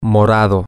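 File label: purple, Spanish, male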